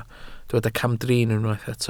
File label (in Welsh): "camdrin", Welsh